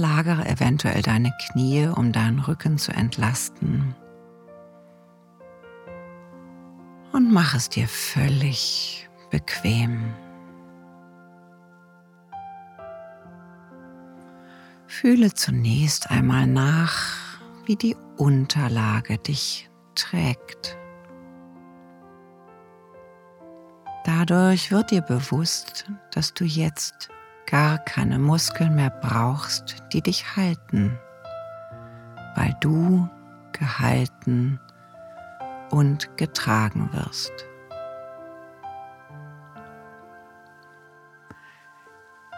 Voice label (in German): Audio Entspannung Hoerprobe Fuer den Mann